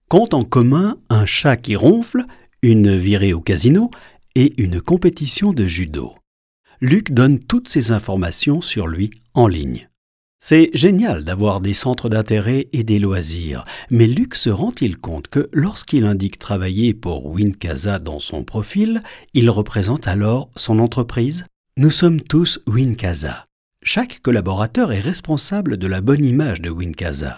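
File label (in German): OFF FR CH, off kommentar französisch ch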